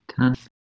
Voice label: "tanth", Proto Germanic

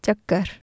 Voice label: "chakar", Urdu

